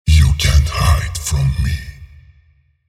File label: ability activate en; visor